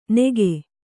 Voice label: "ನೆಗೆ", Kannada

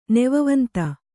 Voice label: "ನೆವವಂತ", Kannada

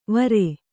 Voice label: "ವಾರು", Kannada

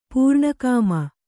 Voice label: "ಪೂರ್ಣಕಾಮ", Kannada

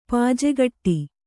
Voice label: "ಪೂಜೆಗಟ್ಟು", kannada

